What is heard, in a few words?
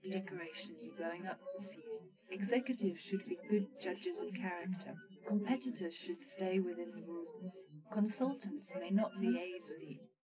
female, 130ms, 20cm